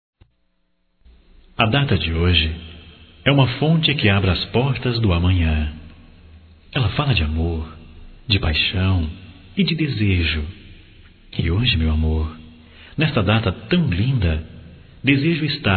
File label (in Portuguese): Aniversário Encontro Masculino; Aniversarios; Telemensagens 2017